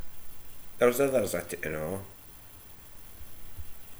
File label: "ithers", slang; Ah huvnae seen ye aboot